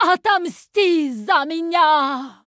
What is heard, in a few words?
Female Draenai Death